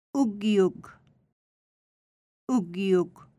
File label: Inuit; Phonetics